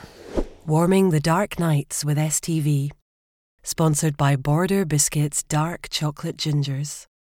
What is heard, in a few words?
Smooth Commercial